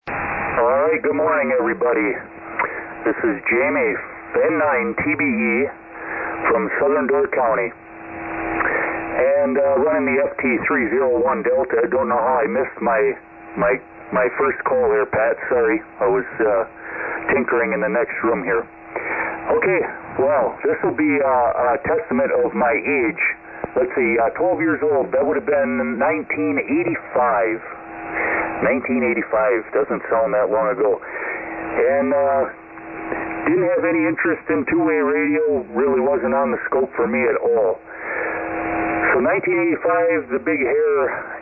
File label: Yaesu FT-301D; Forestville, Wi.